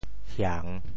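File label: "hiang3", Teochew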